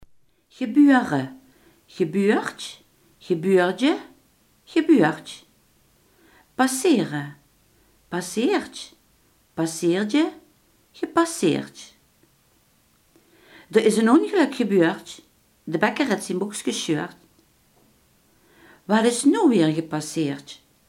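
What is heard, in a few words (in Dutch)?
"gebeuren" Limburgish dialect word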